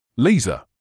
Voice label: "laser", English

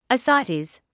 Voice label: "ascites", English

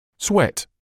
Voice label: "sweat", English

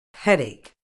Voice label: "headache", medical English

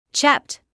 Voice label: "chapped", English

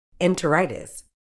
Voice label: "enteritis", uk

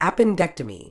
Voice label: "appendectomy", Medical English

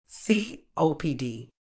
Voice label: "COPD", Medical English

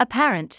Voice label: "apparent", English

